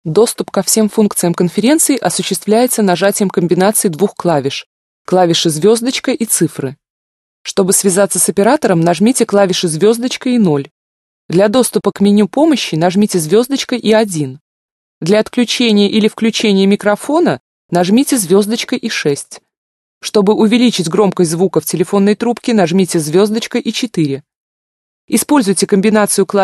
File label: russian female